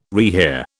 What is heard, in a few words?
"rehear", British